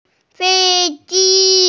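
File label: "fei1ji1", Mandarin Chinese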